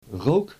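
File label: "rook", Dutch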